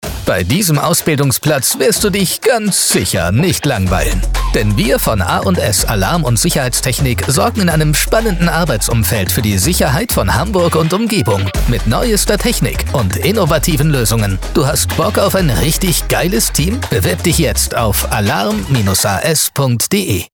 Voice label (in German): Funkspot; A+S Sicherheitstechnik